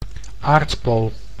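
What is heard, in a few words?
"aardpool", Dutch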